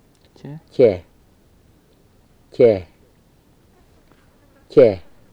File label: "the", Murrinh-Patha